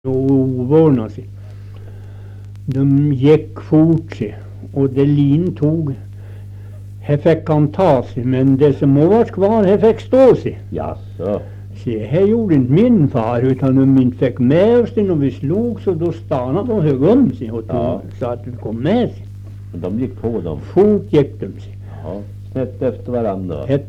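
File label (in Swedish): Österfärnebo Gästrikland, dialekter, svenska sagor; Folkminnen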